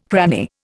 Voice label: "cranny", Indian